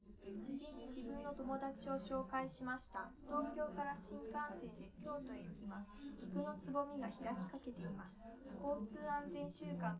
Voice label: female liverec; underdetermined; SiSEC11